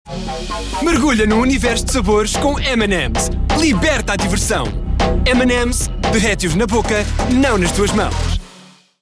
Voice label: Spot